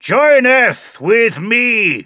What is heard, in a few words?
english King; age2